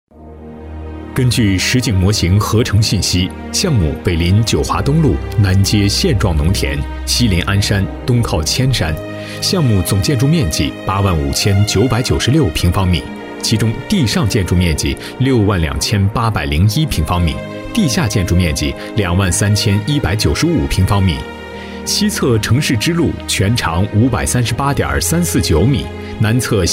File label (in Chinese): 男 项目汇报 稳重大气; 党校; 男D1-浑厚大气